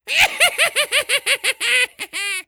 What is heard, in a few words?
Zombie